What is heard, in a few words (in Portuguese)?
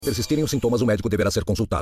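se persistirem os sintomas o medico devera ser consultado comercial de medicamento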